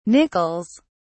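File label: "nickels", British English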